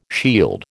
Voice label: "shield", American

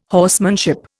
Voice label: "horsemanship", Indian

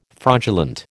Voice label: "fraudulent", American

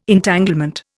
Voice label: "Entanglement", Indian

